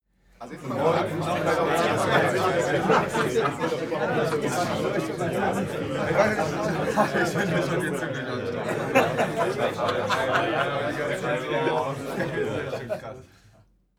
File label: talk happy short